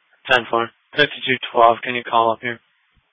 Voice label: Sheriff Dispatch